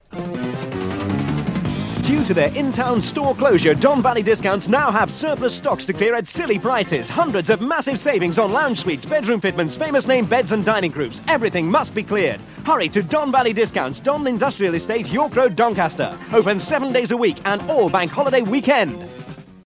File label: Radio Advert, Discount; Radio Hallam; Don Valley